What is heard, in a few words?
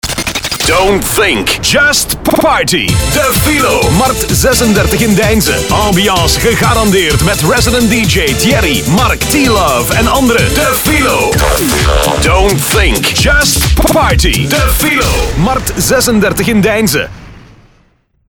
party commercial